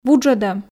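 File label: "вуджӧдӧм", Komi, female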